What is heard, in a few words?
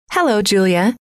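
"hello", female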